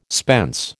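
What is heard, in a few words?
"spence", American English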